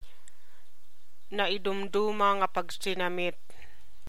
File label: Ilocano; heavenly dessert - naidumduma nga pagsinamit